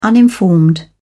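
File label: "uninformed", British English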